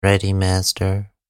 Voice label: Ready Master Human Minion, Evil Voice; Horror; Horror Sound Effect